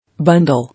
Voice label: "Bundle", English

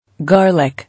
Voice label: "Garlic", English

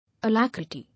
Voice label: "Alacrity", English

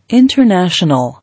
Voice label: "International", English

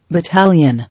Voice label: "Battalion", English

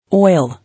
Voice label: "Oil", English

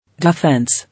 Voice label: "Defence", English

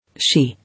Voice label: "She", English

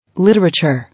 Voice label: "Literature", English

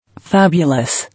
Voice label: "Fabulous", English

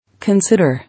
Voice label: "Consider", English

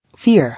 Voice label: "Fear", English

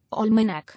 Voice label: "Almanac", English

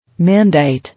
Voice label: "Mandate", English

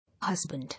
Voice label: "Husband", English

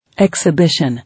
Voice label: "Exhibition", English

